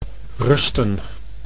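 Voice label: "rusten", Dutch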